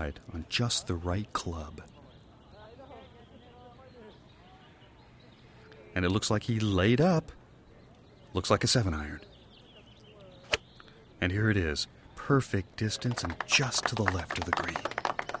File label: Television; Golf Game With Announcer, Crowd And Players. From Close.